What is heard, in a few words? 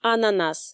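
"ананас", Komi, female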